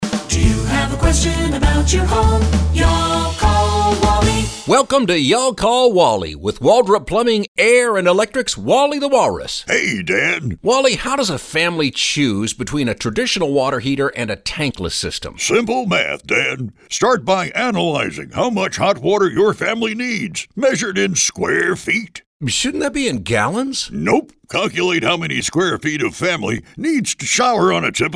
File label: radio ads; Tank vs Tankless